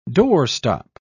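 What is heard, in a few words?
"doorstop", English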